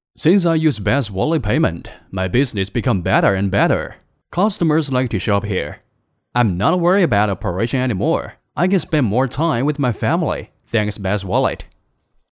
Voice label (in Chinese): 男英 动画 角色 对话日常交流语气; 外语; 英语